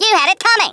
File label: "REVENGE", Australian; Worms Armageddon